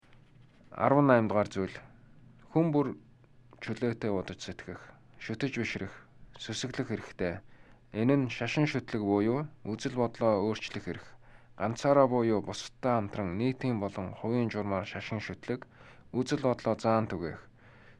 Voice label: Mongolian